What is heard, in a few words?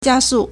"jia1 su4", Chinese